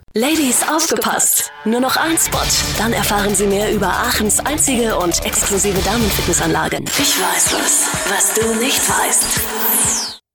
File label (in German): Hoerprobe Werbespot